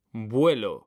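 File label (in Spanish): "VUELO", Spanish, male; mekanta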